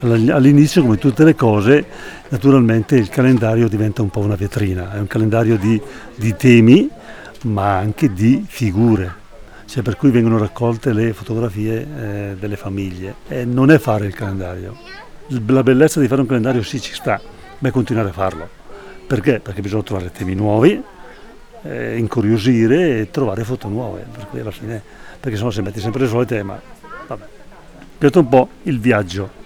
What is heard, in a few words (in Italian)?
intervista breve